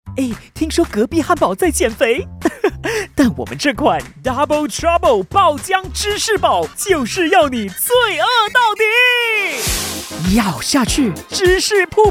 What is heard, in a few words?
Mandarin - Comedic, Dynamic, Funny, High Energy